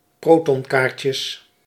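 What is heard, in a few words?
"protonkaartjes", Dutch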